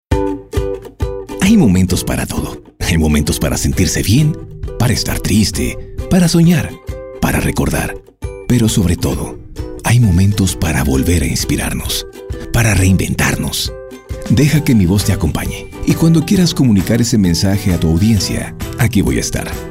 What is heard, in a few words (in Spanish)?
locutor mexicano; mexico